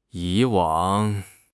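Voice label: "以往", Mandarin Chinese; HSK6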